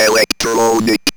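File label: ROBVOX, robot voice; E-Lab; X Static Goldmine 1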